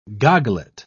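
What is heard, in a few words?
"goglet", British English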